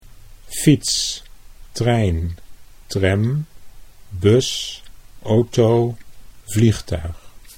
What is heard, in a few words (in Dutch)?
"fiets trein", Dutch